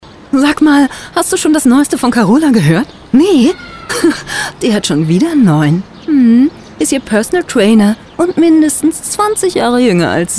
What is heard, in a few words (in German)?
Werbung Kosmetik skin care